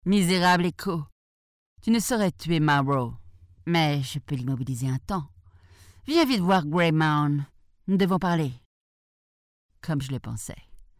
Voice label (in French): games, voix off femme